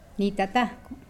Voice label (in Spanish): Nawat palabras dividir; pipil